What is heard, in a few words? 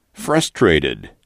"frustrated", English